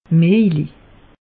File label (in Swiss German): "mejlig", alsace; meteo